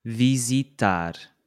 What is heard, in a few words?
"visitar", portuguese